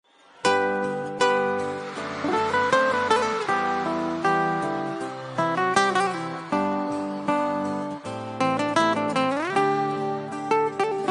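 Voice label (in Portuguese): romântica suave, Telemensagem Início de Namoro – Voz Masculina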